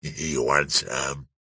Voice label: human male vocal american fat NY says you want some gangster; zapsplat